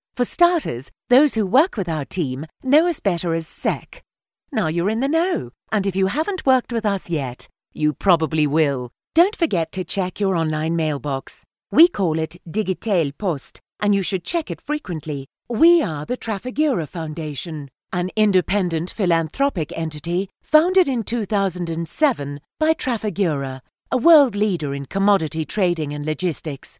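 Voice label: English British, female, english british voice over talent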